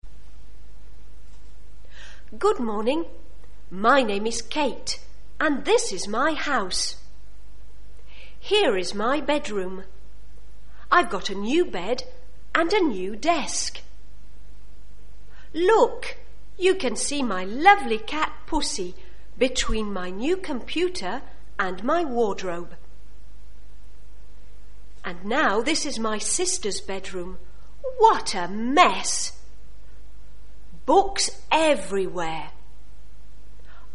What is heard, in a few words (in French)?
Anglais